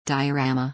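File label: "diorama", English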